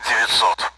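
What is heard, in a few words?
Speech Sound Russian Common AWACS Numbers; DCS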